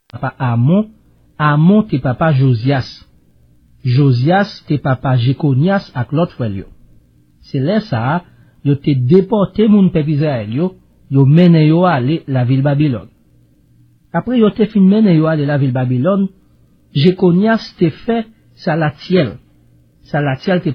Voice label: Haitian Creole